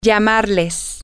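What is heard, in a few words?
Spanish, to call you them, female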